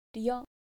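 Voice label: "ryo", Japanese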